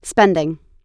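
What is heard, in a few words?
"spending", US English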